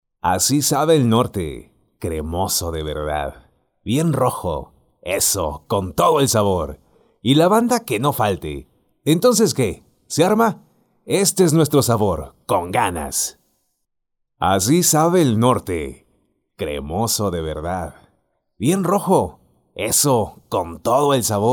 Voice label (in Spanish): LOC COMERCIAL Y PUBLICITARIA; EL NORTE